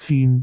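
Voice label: "cin4", Cantonese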